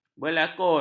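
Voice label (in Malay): "berlakon", Malay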